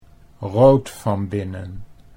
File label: rood van binnen, Dutch